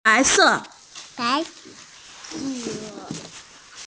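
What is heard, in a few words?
"bai2se4", Mandarin Chinese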